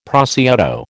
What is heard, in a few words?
"prosciutto", American